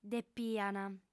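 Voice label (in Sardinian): "depiant", female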